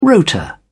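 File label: "rotor", British English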